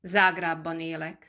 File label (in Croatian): mađarski; POP UP Zagreb